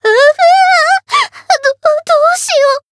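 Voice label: encount fear, Japanese; melody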